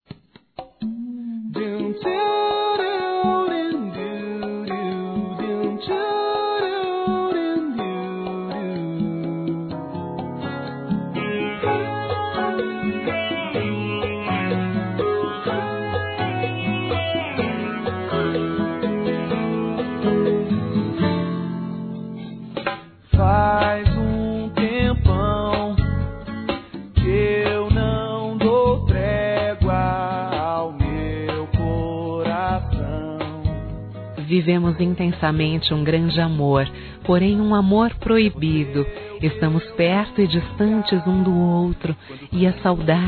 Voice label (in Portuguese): VOCÊ ME CONQUISTOU (F); Telemensagens 2017; Românticas